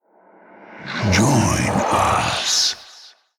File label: Whispers